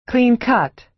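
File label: "clean-cut", American English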